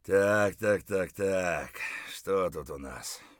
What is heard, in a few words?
mutter, voice; Escape from Tarkov